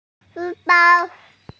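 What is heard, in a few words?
"shu1bao1", Mandarin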